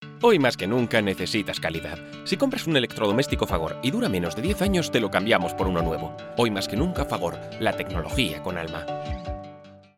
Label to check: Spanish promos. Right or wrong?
Right